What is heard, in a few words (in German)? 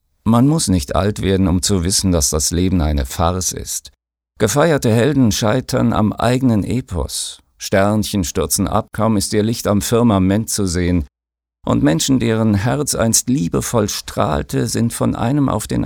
Weltschmerz Sanft